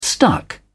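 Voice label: "stuck", English, uk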